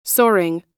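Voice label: "soaring", American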